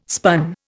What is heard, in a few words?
"spun", Indian